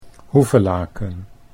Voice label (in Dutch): "Hoevelaken", Dutch